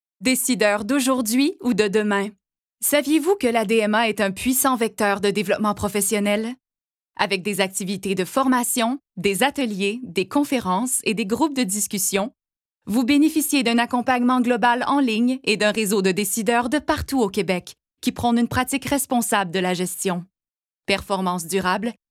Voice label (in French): Voix Publicité; ADMA